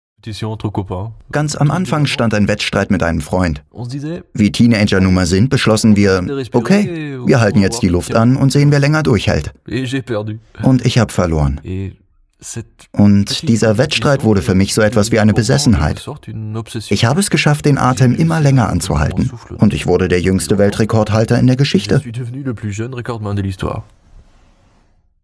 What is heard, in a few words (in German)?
Voiceover Abenteuer